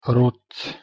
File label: "ruds", Latvian